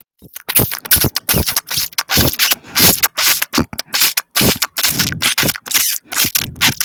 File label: voice message